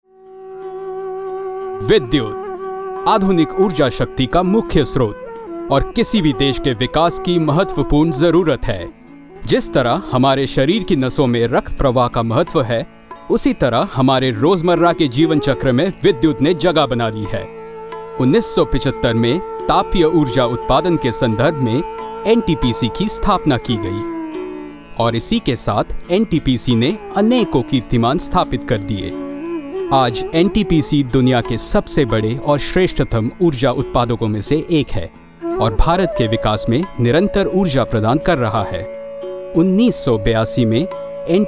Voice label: Hindi Corporate AV